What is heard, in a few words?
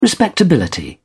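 "respectability", British English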